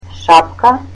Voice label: "hat", Macedonian